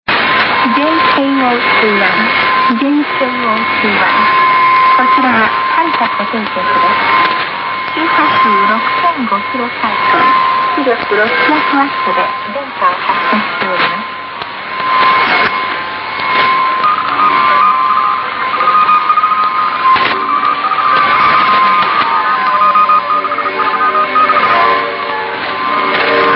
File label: Matsuyama Harita 6005 kHz; JKO21; 600w